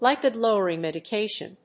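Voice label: "lipid-lowering medication", English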